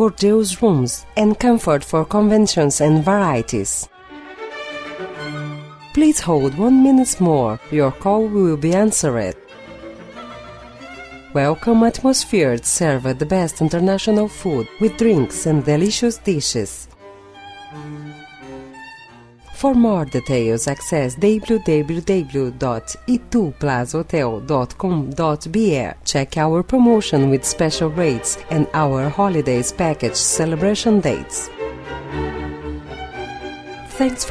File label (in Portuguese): inglês, Plaza Hotel; ITU PLAZA HOTEL